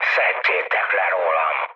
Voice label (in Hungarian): aircraft damaged mood med, hun; speech; War Thunder